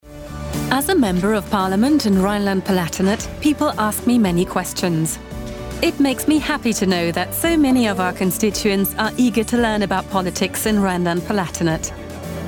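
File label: English, documentary; Landtag Rheinlandpfalz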